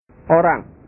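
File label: "orang", Indonesian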